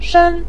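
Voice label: "shen", Mandarin Chinese, neutral tone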